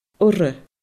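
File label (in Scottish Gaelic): "urra", Scottish Gaelic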